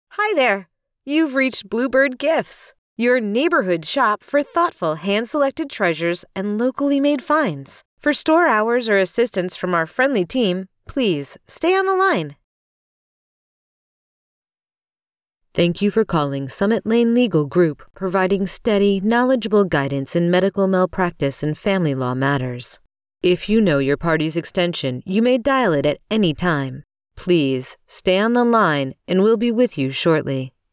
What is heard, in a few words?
Telephony Greeting Samples; de-essed